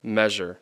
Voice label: "measure", English